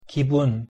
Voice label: "기분" Korean pronunciation